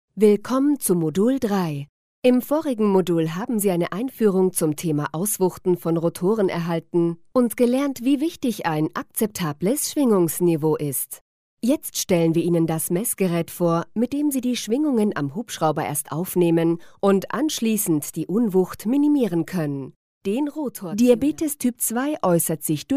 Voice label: E-learning Mix

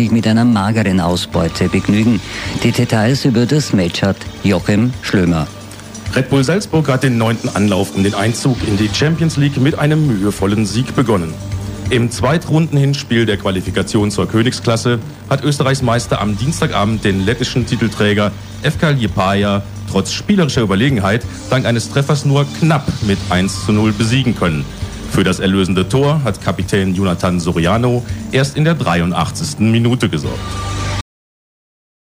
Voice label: news sport

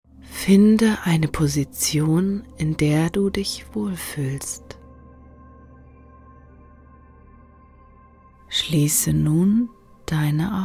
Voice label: Meditation

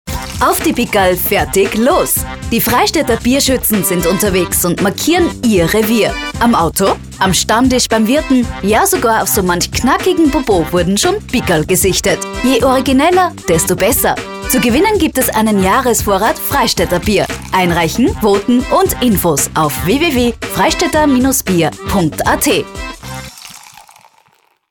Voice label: Austrian Radio Commercial; Freistädter Bierschützen

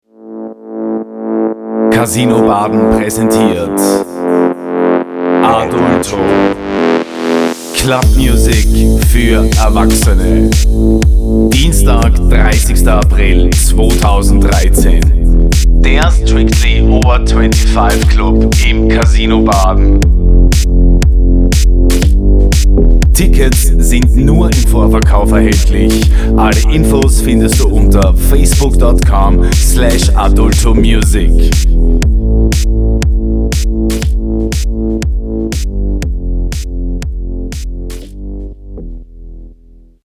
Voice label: jingel; Casino Jingel; adulto

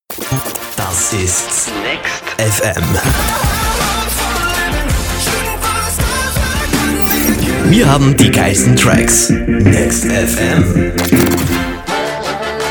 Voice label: station Voice; nextfm

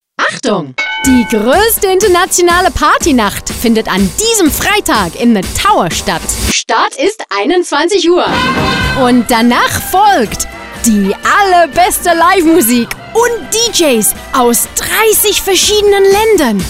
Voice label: Voice Artist Radio advert German

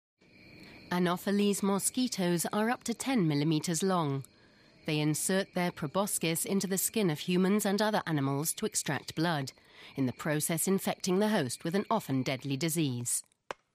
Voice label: Voice Artist TV science report

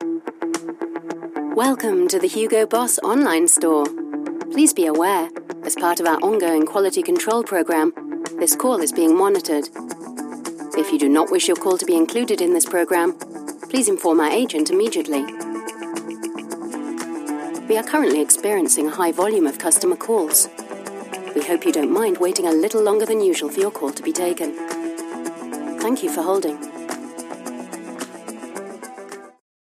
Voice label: Voice Artist Fon Announcements

